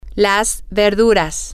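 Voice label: the vegetables, spanish, female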